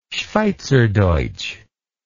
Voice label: "schweizerdeutsch", English

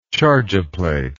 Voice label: "chargeaplate", English

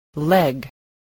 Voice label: "leg", English

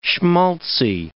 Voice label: "schmaltzy", English

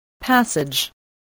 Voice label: "passage", English, voice

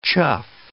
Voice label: "chuff", English

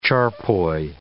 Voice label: "charpoy", English

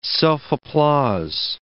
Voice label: "self-applause", English

